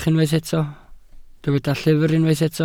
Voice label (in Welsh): "llyfr" Welsh